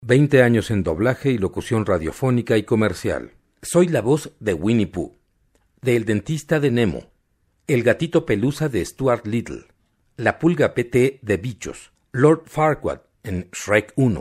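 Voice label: latam mexican male narration; voice demos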